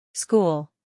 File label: "school", English